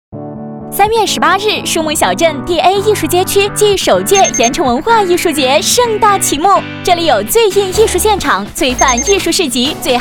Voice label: 女 广告 动感; 文化艺术节启幕

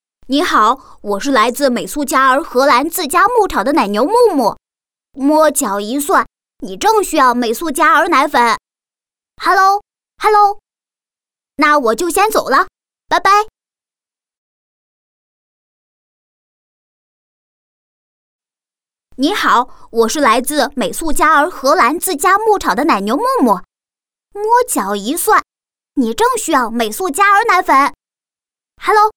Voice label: 女 男童声 广告 第一人称; 美素佳儿

